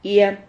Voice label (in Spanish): "hia", Silabas